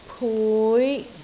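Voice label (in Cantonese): "pui5", Cantonese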